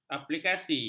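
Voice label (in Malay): "aplikasi", Malay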